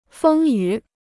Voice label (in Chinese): "风雨", Chinese